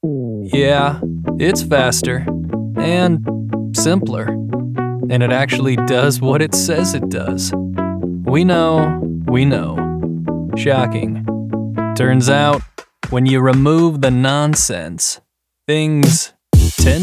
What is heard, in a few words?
Dry Deadpan